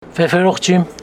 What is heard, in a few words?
Homshetsi i will not fly